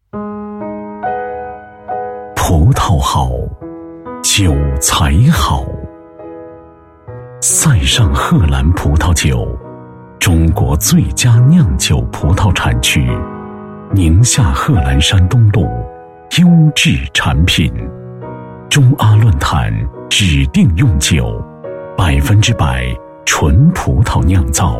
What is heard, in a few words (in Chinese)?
男 广告, 国语男声配音; 塞尚贺兰葡萄酒